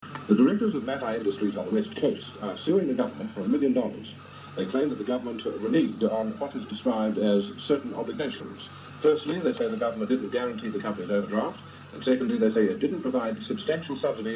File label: news